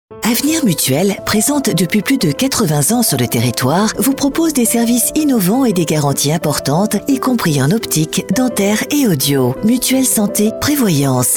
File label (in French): Pub Ton Promotionnel, voix off